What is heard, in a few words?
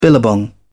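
"billabong", English, uk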